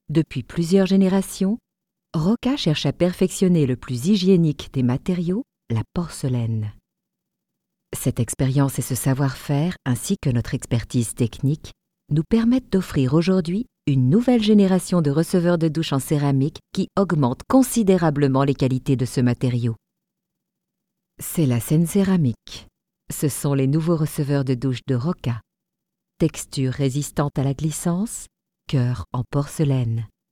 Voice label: Ad; Roca

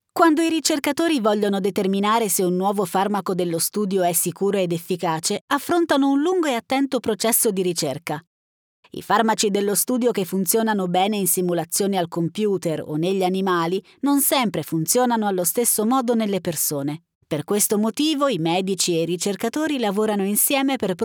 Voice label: e-learning

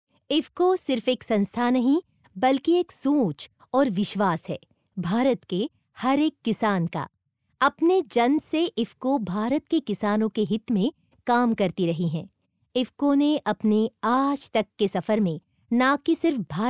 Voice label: HIndi Corporate VO

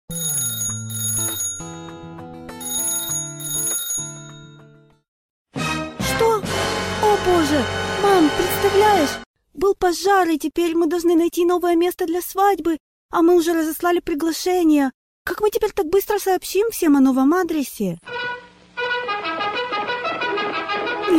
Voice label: Russian promo

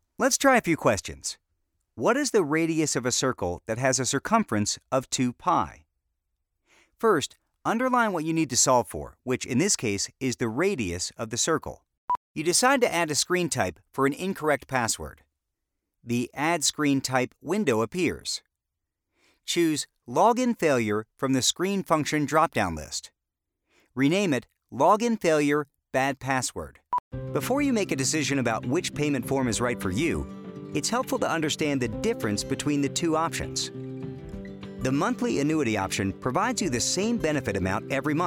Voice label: eLearning

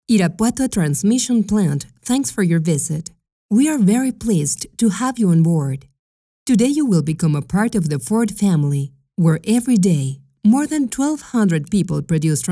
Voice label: AUDIOGUIDE; FORD

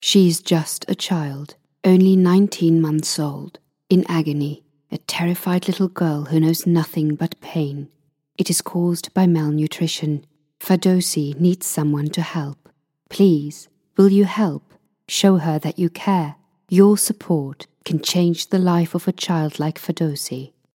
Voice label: Charity Appeal

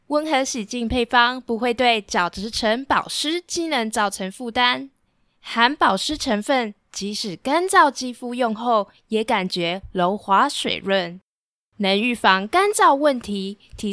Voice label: Taiwanese Mandarin VO Skin Product

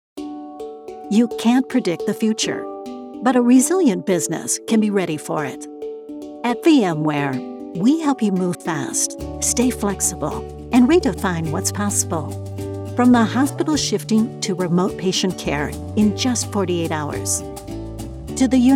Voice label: Explainer video

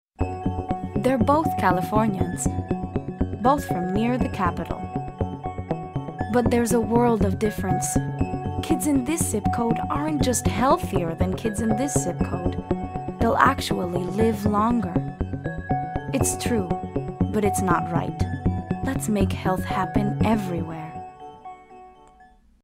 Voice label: English; Health Happens Here

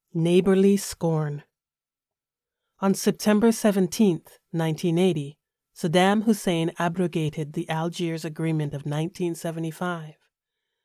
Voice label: Non Fiction Narration; Iranian Women Gender in the Iran Iraq War

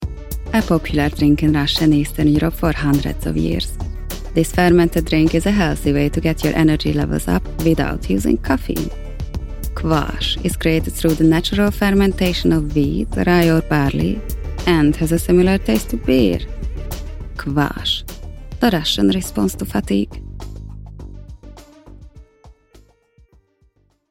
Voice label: Ad

